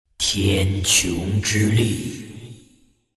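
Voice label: levelup, chinese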